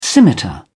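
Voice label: "scimitar", English, British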